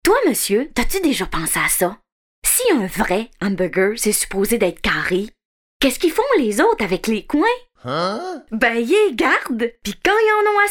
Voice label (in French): demo voix